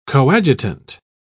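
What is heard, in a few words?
"coadjutant", English, British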